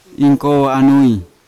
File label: "inkovo anui", Yawa; older mother